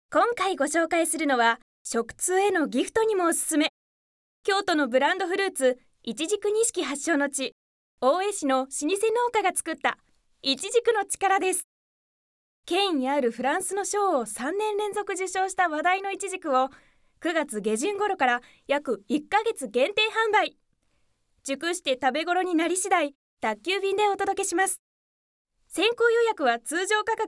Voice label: female